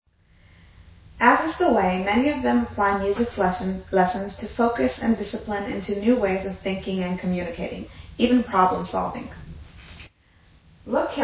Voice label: female